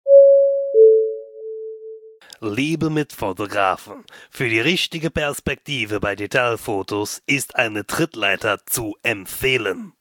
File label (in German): durchsage